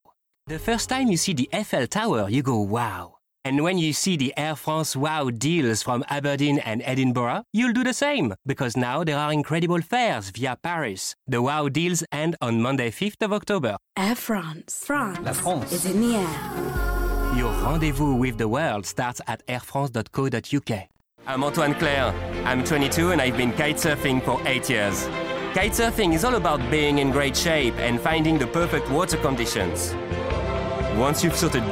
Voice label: english commercial